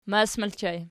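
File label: "mas" ergative plural, Archi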